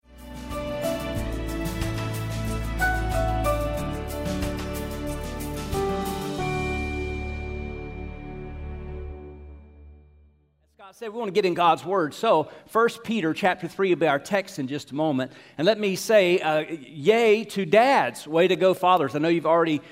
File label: English Sermon